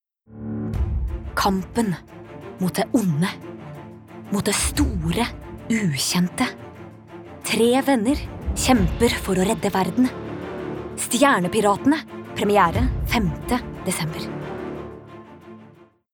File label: no movie trailer, norwegian, female